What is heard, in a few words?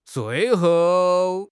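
"zui4 hou4", Mandarin Chinese; HSK3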